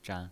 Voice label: "zhan0", pinyin